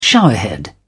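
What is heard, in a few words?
"showerhead", British English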